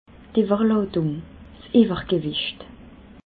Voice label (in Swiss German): "iwwerladung", alsace